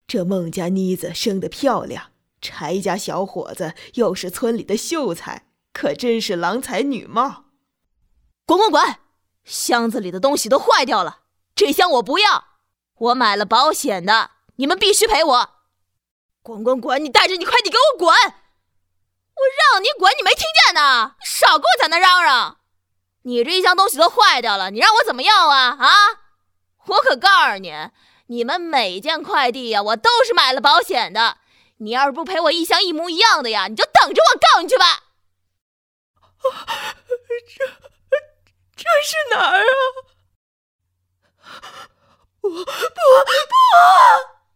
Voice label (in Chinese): 配音声线展示大妈